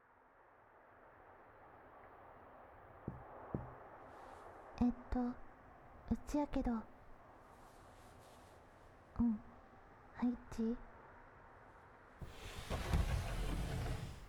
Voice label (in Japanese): 宮崎弁, 木枯らし; 田舎の古宿ン娘の夜伽